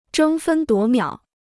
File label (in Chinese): "争分夺秒", Chinese